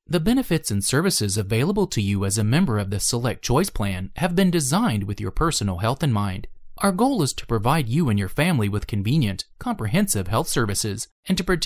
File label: english american e learning